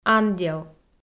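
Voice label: "андел", Komi-Zyrian, female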